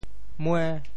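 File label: "mue5", Teochew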